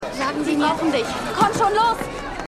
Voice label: Junge Aerztin; Liaison

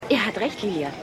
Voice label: Dorfmutter; Hercules

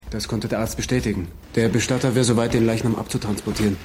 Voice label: Junger Polizist; Silent Witness

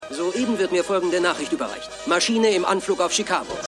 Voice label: AirPlane Nachrichtensprecher

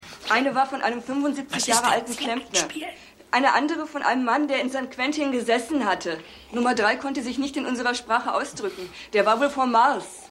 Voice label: Frau Einspieler

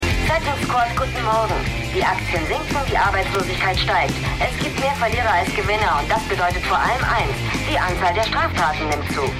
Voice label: Disponentin; The Unusuals

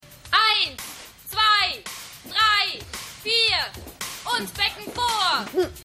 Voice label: TV Trainerin

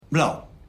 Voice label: Serbisch Dolmetscher; The Unusuals

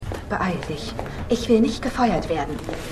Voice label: Aeltere Sekretaerin; Boston Strangler

